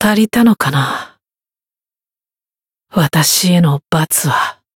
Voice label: retire jp